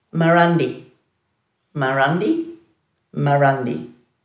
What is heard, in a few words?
"marandi", Dhurga